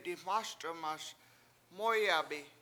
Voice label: "diMASd1maS MOOyabi" I hide they run by, Washo

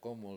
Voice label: "ball", Washo

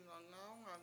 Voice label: "ngawngAwngang", washo; children

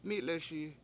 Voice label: mI7leSi two, Washo

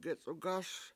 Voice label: put it in, Washo; gec7ugAS

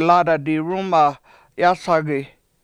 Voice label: My Bed In My Room Is Hot, washo; lAAda7 dirUUma yAsangi

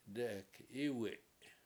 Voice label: "dE7ek Iwi7" above rock, Washo

